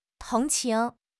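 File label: "tong2 qing2", Mandarin Chinese; HSK; HSK4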